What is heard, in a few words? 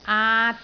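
"aat3", Cantonese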